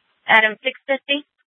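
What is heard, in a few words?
Sheriff, Parks, Sheriff radio scanner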